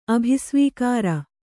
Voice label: "ಅಭಿಸ್ವೀಕಾರ", Kannada

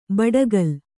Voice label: "ಬಡಕಲು", Kannada

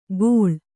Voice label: "ಗೊಳ್", Kannada

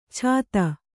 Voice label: "ಛಾತ", Kannada

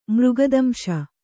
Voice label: "ಮೃಗದಂಶ", Kannada

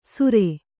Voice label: "ಸುರೆ", Kannada

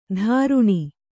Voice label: "ದ್ರುಣಿ", kannada

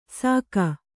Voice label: "ಸಾಕ", Kannada

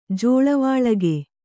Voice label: "ಜೋಳವಾಳಗೆ", Kannada

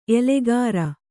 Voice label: "ಎಲೆಗಾರ", Kannada

